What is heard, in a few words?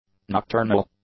"nocturnal", english; adjectives